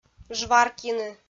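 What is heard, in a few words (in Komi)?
"жваркйыны", Komi, female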